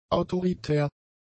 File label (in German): "autoritär", German; adjectives